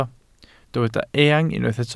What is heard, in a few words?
"eang", welsh